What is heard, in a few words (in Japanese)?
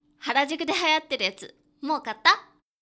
josei, harajukudehayatteruyatumoukatta; 女性 「原宿で流行ってるやつ、もう買った？」; キュート